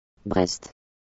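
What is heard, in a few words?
"Brest", French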